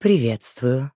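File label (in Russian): "Приветствую", Russian; Симметра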